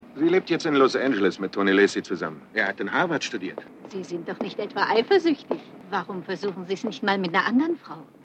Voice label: Aelterer Mann Strasse Aeltere Frau, Stimmproben; Der Stadtneurotiker

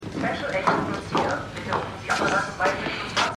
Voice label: Durchsage; The Wire

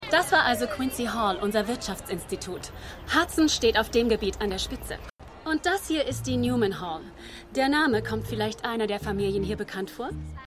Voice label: Fremdenfuehrerin, Stimmproben

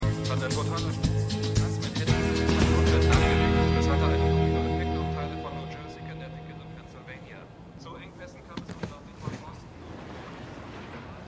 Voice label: TV Sprecher; Shameless

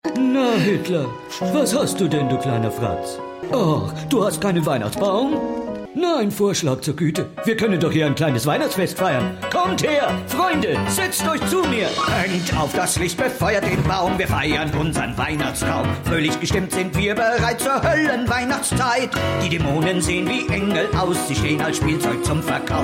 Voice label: Sprache Gesang Pitch+3; Satan; South Park

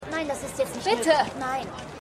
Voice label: Polizistin; Manifest

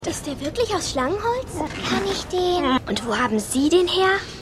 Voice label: Rothaarige Schuelerin; Ravenclaw; Phantastische Tierwesen

